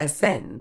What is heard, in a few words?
"ascend", Medical English; əˈsend; uh-SEND